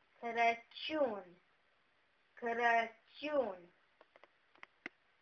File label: Christmas, Romanian; Crăciun